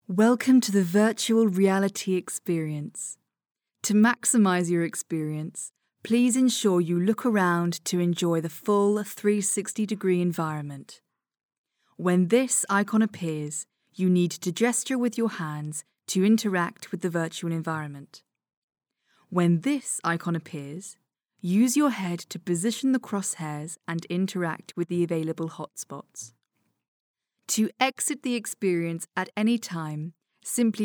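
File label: English British, female, voice over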